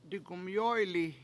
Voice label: "digumyOyli7" I am tired, Washo

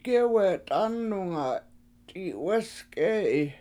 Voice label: Coyotes Dont Eat People, Washo; gEwe t7Anunga t7i7wEEs k7E7i

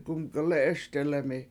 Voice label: I Hear You Crying, Washo; gumgelE7eSdelemi

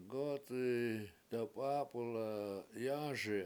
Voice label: bee flies into flower, Washo; gOc7i dawpAp1la yAASi7

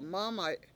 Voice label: "mAAmay7", washo; basket

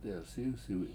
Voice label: "delsIwsiwi7" Washo

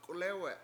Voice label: "k7ulEwe7", washo; to lie inert

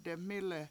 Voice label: "de7MI7le7" old things, Washo

